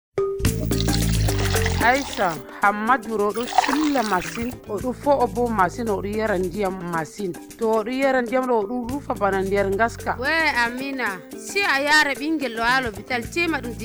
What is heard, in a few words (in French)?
Spot radio sensibilisation fufulde; sensibilisation sur le diabete de lenfant